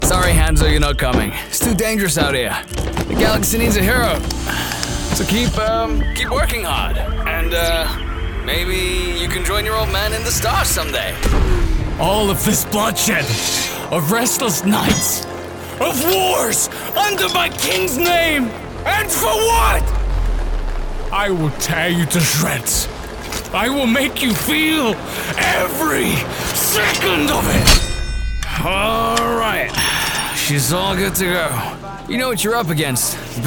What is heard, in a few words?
FULL Video-Game Demo UK/US, Voice Actor